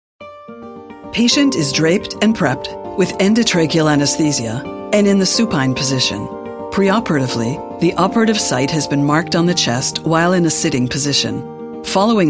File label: english canadian commercial, confident